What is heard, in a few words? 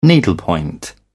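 "needlepoint", British English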